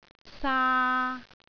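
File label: "saa3", Cantonese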